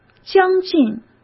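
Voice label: "将近", Mandarin Chinese; chinese-flashcards; hsk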